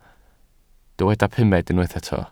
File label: "pumed", welsh